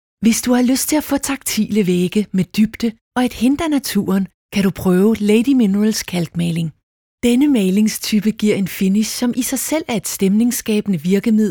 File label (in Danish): dk reklame, dansk, kvinne